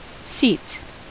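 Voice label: "seats" English, US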